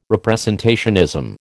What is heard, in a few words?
"representationism", American